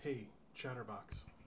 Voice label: hey chatterbox, en-us